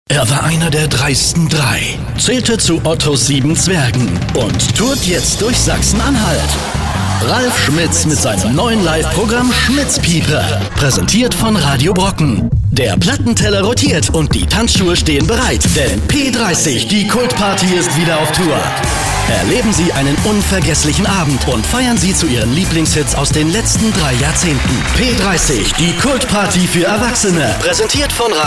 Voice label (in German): Trailerstimme